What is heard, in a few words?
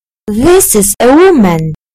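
"a woman", British English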